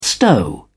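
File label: "stow", British English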